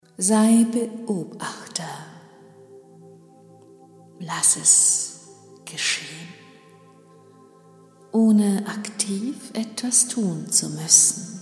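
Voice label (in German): Induktion Körper aus Blei, Hörprobe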